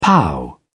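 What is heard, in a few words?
"pow", British English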